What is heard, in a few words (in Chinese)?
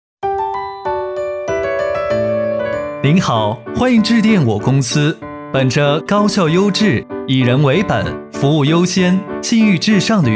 彩铃